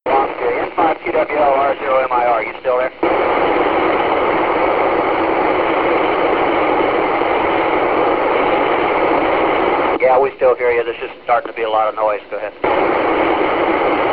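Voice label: MIR radio contact; 1995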